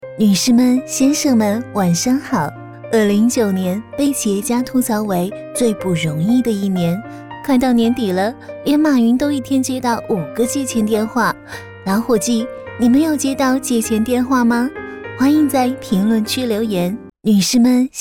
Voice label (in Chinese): 女 台湾腔 借钱